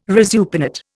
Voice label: "resupinate", Indian

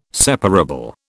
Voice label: "separable", British

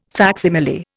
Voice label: "facsimile", Indian

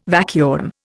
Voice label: "vacuum", Indian

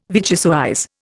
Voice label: "vichyssoise", Indian

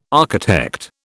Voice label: "architect", British

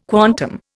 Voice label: "quantum", Indian

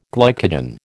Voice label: "glycogen", American

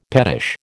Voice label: "pettish", American

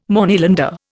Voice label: "Moneylender", Indian

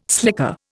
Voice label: "slicker", Indian

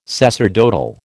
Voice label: "sacerdotal", American

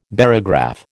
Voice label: "barograph", American

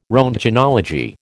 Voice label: "roentgenology", American

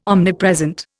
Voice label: "omnipresent", Indian

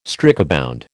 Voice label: "strikebound", American

